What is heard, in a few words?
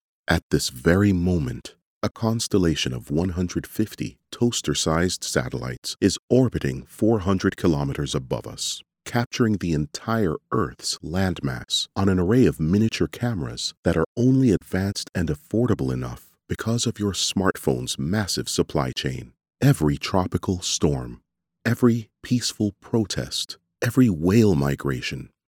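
English, Canadian, male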